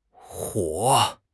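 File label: "huo3", Chinese; HSK4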